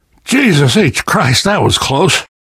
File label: player transition close, voice; source engine; left 4 dead 2; namvet